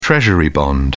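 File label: "treasury bond", British English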